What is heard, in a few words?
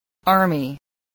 "army", English